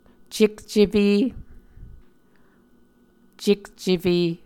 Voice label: "cekcefe", muscogee